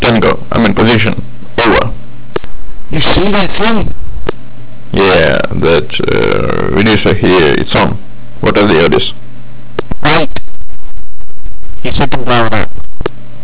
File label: radio chat; svencoop; hgrunt